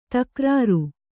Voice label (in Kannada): "ತಕ್ರಾರ", Kannada